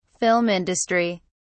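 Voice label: "film industry", British English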